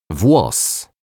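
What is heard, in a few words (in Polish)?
"włos", Polish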